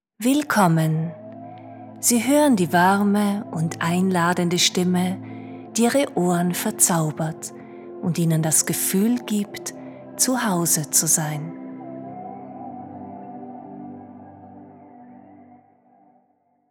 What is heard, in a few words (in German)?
Sanft